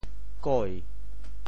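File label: "koi2", Teochew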